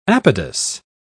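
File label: "apodous", British English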